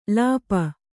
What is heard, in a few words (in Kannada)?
"ಲೇಪು", Kannada